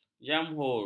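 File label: "jamhur", Malay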